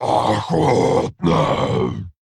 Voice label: Male Tauren Attack